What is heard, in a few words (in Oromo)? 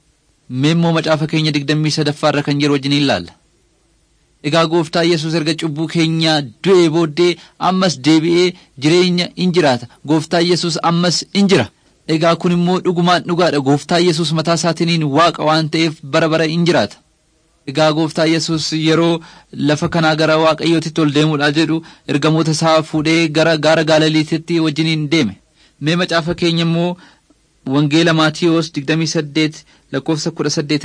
oromo wellega